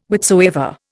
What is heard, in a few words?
"whichsoever", Indian